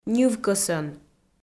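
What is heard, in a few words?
"ньывкӧсӧн", Komi, female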